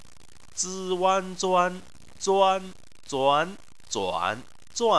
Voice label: "zuan", Chinese